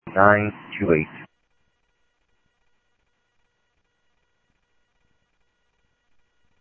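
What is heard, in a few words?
Sheriff Lake Patrol, scanner audio trunk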